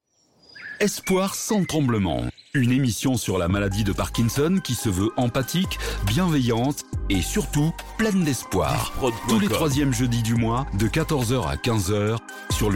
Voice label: Emission Parkinson Espoir sans tremblement

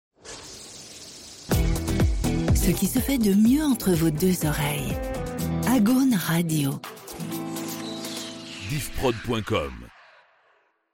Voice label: Radio Jingle Ce qui se fait de mieux; Agaune

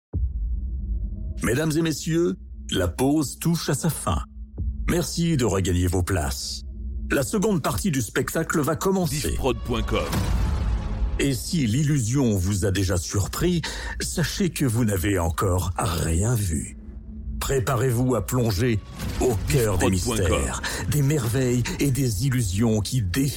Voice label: Illusion Magie Annonce Fin Entracte

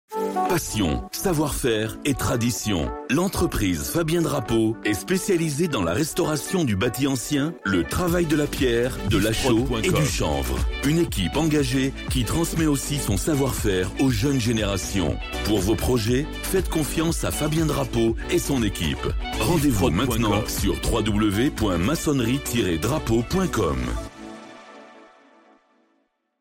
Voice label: Spot Maconnerie

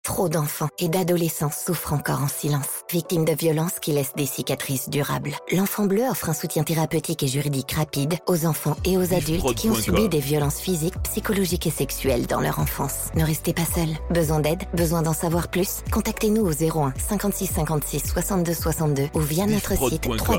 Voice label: Spot National, publicite; Enfant Bleu

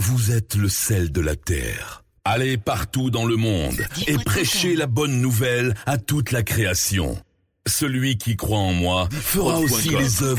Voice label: Drops A cappellas, habillage

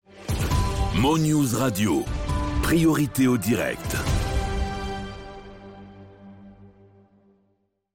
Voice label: News Sweep Priorite au direct

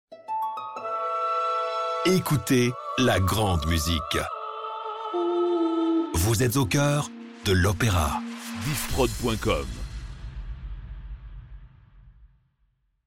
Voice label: Jingle Au coeur de l'Opera; La Grande Musique

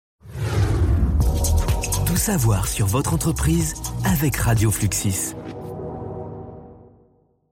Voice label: Radio Sweep Tout savoir; Radio Fluxis

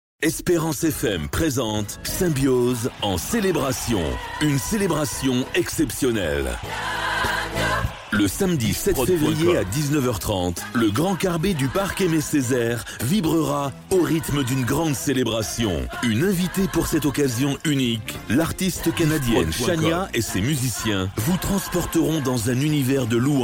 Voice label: Spot Concert; Esperance FM; Symbiose 7 Fev 2026

